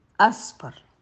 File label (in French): "Jaune" Arabe